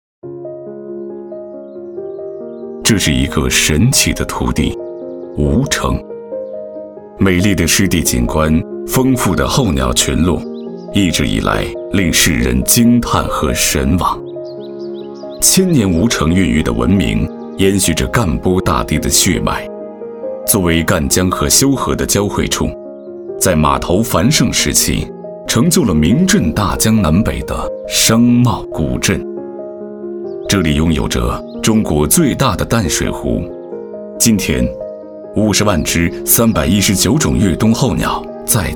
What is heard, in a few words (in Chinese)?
地理景区候鸟大气解说风格